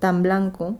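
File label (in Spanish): "tan blanco", Spanish, Madrid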